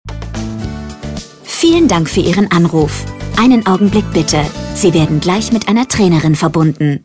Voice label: Ansage; Telefonansagen Fitnessstudio – Studio – GYM – Sportpark

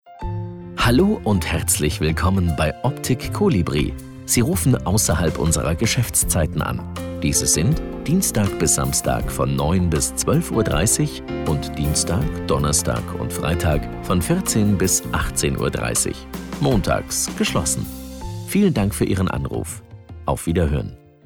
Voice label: Ansage Optik; Colibri

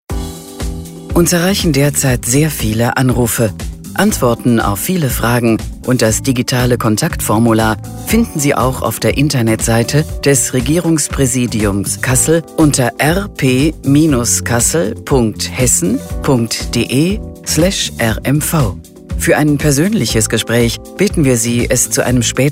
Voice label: Besetzte Leitungen, Telefonansage; telefonansage regierungspraesidium

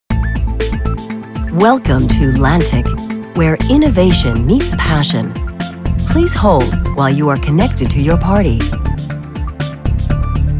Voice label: Warteschleife; Lantiq

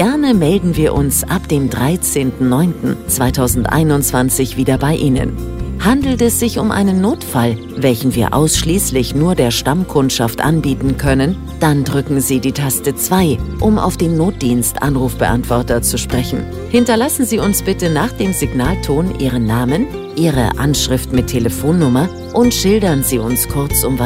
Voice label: Betriebsurlaub, Telefonansage Betriebsurlaub; Sanitär & Heizung (SHK); Faisst

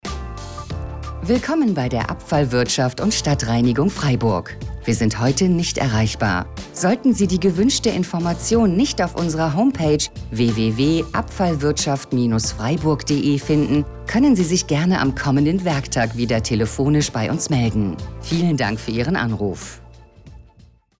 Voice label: Info Arbeitsfreier Tag, Telefonansage Abfallwirtschaft

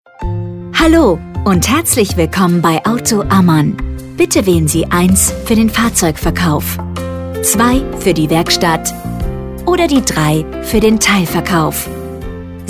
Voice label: IVR Ansage; Autohaus – KFZ – Werkstatt – Gebrauchtwagen Händler; Telefonansage

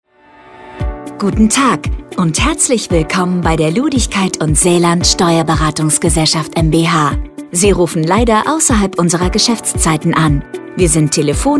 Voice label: Anrufbeantworter Ansage Steuerberater; Telefonansage; Telefonansage Steuerberatung – Steuerberater

